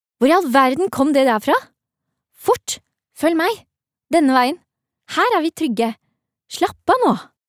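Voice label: dub, Norwegian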